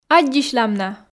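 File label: "аддзысьлам на", Komi, female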